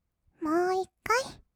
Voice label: "もーいっかい", kawaii voice; 音ゲー

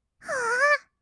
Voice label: "あー", kawaii voice; ASMR; 色々なワンショット

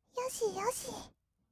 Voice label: "よしよし", kawaii voice; ASMR; 色々なワンショット

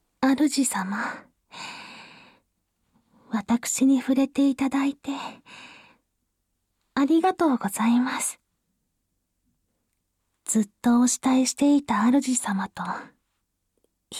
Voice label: 甘々和服メイドさん ボイスのみ, ASMR

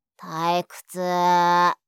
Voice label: "退屈～", 相づち・反応系【ネガティブ】; ASMR

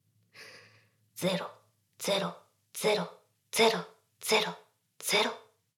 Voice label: ダウナー風俗 カウント

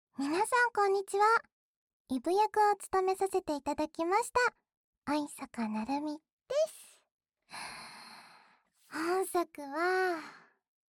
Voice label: フリートーク; イブ役

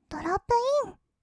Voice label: "ドロップイン", kawaii voice; 音ゲー

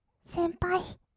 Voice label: 先輩, kawaii voice; ASMR; 二人称

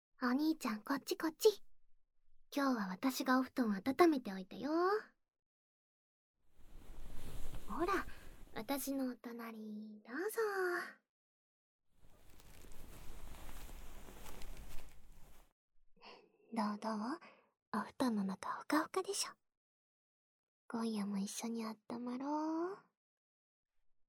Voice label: 耳舐め汗だく絶頂＆寝息, ASMR

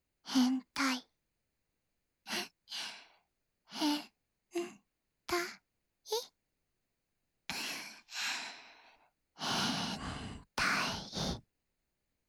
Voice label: 囁き（ヘンタイ・右）言语侵犯

